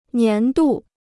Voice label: "年度", Chinese